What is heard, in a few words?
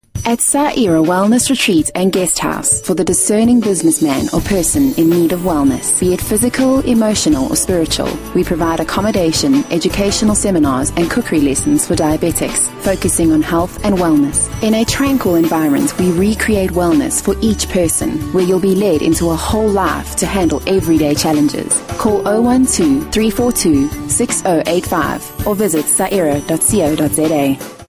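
English South African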